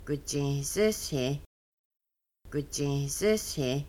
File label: What about caribou hides, Kaska; rummaking